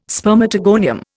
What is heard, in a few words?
"spermatogonium", Indian